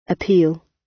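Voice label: "appeal", British; Life, leisure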